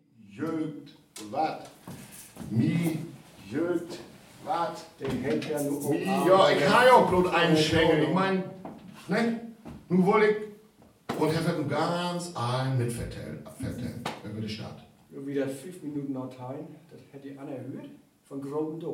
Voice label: duo plattdeutsch